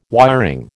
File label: "wiring", American